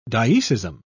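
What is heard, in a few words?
"dioecism", British English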